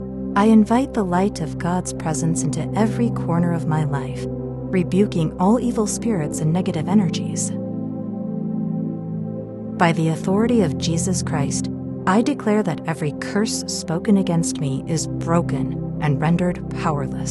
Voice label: Break Curses Affirmations